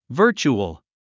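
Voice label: "virtual", English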